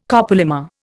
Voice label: "copolymer", Indian